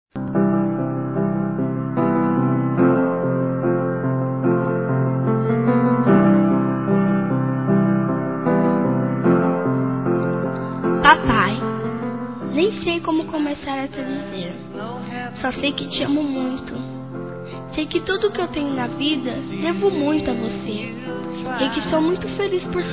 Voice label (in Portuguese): ANIV. PAPAI-F, Telemensagens; Aniversário Infantil